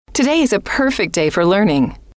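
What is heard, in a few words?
today Is A Perfect Day For Learning, female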